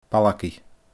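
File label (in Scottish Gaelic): "Balgaidh", Scottish Gaelic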